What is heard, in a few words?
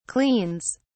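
"cleans", English, uk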